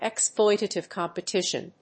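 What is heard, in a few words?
"exploitative competition", English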